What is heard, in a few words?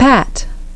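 "Pat", English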